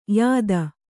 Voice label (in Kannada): "ಯುತಿ", Kannada